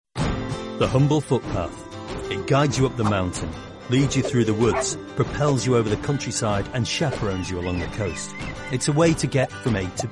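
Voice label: English male Corporate; voice over; accents of england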